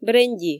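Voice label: "бренди", Komi, female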